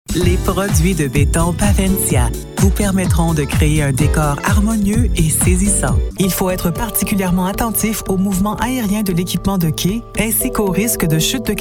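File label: Commercial French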